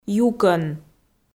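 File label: "юкӧн", Komi, female; kpv audio dict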